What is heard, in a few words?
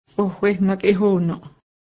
huron people, Cayuga; ohwehnagehó:nǫˀ